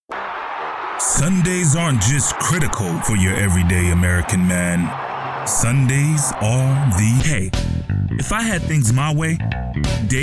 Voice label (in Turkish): Amerikan Ingilizcesi Erkek; seslendirme